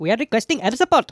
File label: "orders", Speech; Worms Armageddon; The Raj